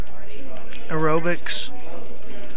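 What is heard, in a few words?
"aerobics", USA, male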